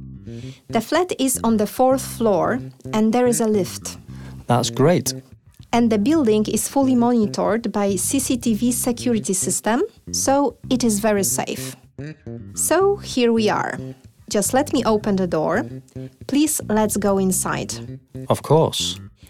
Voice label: Dialog